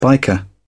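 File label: "biker", British English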